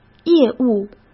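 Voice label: "业务", Mandarin Chinese; hsk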